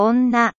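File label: "onna", Japanese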